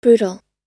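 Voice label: "brutal", American English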